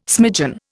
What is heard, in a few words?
"smidgen", Indian